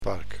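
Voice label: "park", Dutch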